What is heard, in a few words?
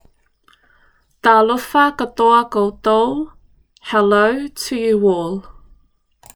Talofa katoa koutou Hello to more than one, Tuvalu Language; 2022 Pacific Language Weeks